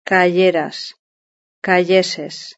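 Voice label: "cayeras", Spanish; verb-conjugator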